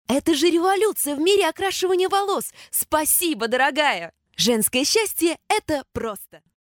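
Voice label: Russian, female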